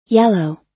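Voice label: "yellow", US English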